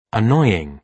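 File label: "annoying", British English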